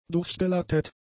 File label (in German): "durchgelattet", German; adjectives